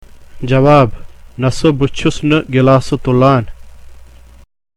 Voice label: Spoken Kashmiri, Reply: No, I am not lifting a glass.; Question: Are you lifting a glass?